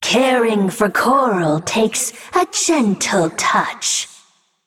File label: Female Naga